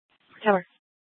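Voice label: Police Department North Dispatch, scanner; Scottsdale PD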